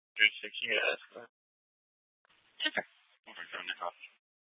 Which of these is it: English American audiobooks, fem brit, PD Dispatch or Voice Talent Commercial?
PD Dispatch